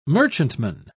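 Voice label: "merchantman", British English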